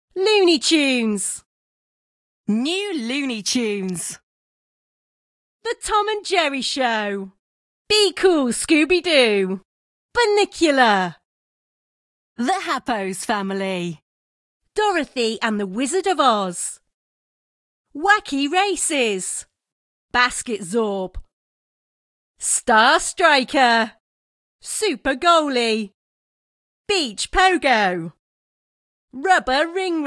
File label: en-gb vo menu; Boomerang All Stars - Super Goalie